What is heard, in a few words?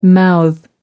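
"mouth off", British English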